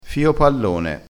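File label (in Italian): "fio pallone", vocabolario dialettale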